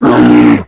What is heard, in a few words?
Druid of the claw morphed pissed